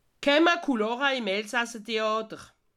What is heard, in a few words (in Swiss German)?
"lacha", alsace